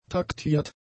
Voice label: "taktiert", German; adjectives